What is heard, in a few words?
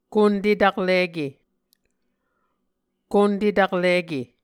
"kunte taklike", Muscogee; Mvskoke Words with Voicing Consonants; A Dictionary of Creek/Muskogee; SIL International